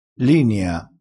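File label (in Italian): "linia", crespinese dialettale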